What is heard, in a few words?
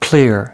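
"clear", English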